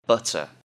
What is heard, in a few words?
"butter", English; Common Prepared Foods